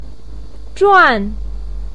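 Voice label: "zhuan4", Mandarin Chinese, pinyin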